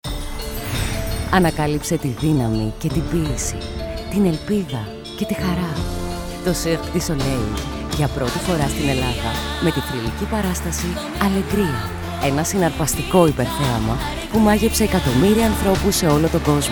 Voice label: radio spot